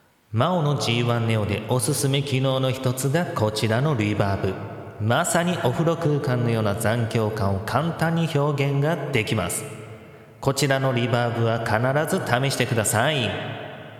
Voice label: maono g1 neo